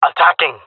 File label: attacking, jungle male; player vo; Counter Strike Model Pack; CS:GO